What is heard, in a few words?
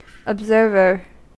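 "observer", US English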